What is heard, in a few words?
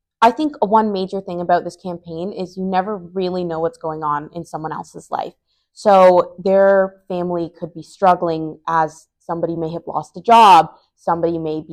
female; westside secondary warm wishes campaign update